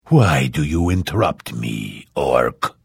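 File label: Hello, Vo; Morrowind GOTY GOG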